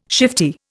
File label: "shifty", Indian